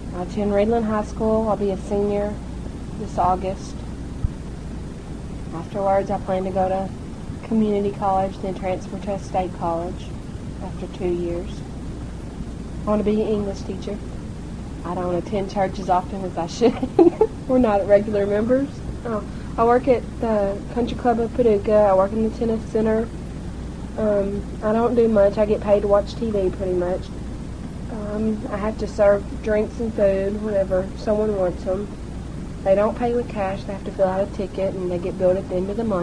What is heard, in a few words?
kentucky, dialects